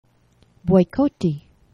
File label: "bojkoti", Esperanto; geneva